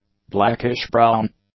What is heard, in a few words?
"blackish-brown", English; adjectives